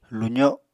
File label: "luzo", apatani